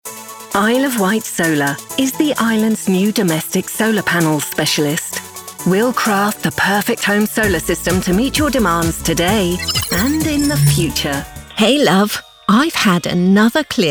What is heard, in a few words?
Radio, Female British Voiceover; Radio Commercials